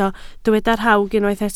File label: "rhawg", Welsh